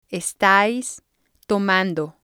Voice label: "estáis tomando", Spanish